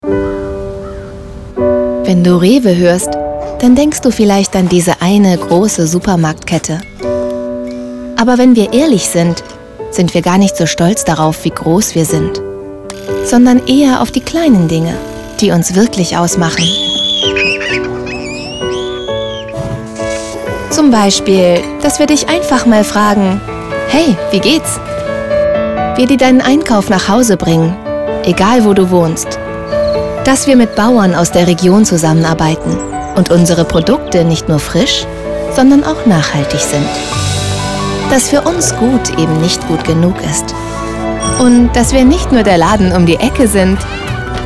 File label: TV Spot; Rewe